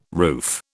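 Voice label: "roof", British English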